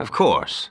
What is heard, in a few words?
Male; baldurs1